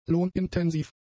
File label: "lohnintensiv", German; adjectives